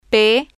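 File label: "p", spanish, female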